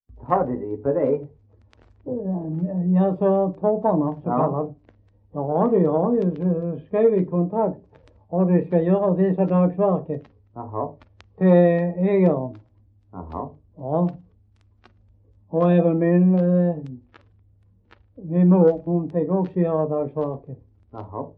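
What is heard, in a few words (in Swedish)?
Hallaröd Skåne, dialekter; Folkminnen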